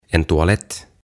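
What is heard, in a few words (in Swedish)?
"en toalett", Swedish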